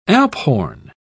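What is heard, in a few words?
"alphorn", British English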